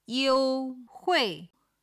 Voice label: "you1hui4", chinese